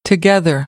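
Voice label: "together", American, English; AmericanBritish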